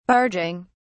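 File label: "barging", British English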